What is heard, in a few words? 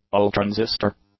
"all-transistor", English; adjectives